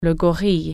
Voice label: "gorilla", French